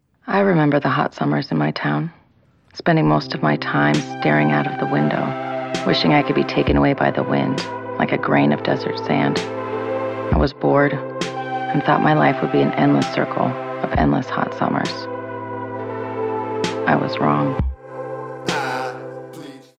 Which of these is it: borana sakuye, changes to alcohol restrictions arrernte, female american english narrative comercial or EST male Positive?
female american english narrative comercial